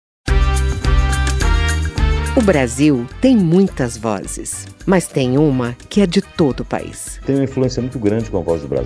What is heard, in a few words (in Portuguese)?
spot locutor; 90 anos da voz; Capitão Poço (PA); A Voz do Brasil